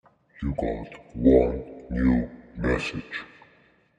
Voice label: Deep Voice